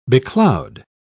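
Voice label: "becloud", British English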